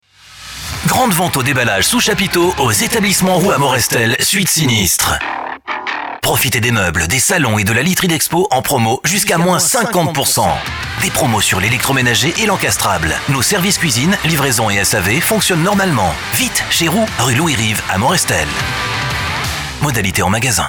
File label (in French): Spot Radio Dynamique; Promo Magasin Roux